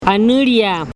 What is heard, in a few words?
"anüliaa", wayuunaiki; name